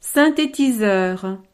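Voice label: "synthetiseur", French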